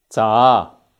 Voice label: "zaa5", Cantonese